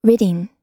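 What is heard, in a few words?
"ridding", American English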